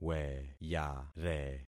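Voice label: "weyare", concatenated syllables; Affixing experiment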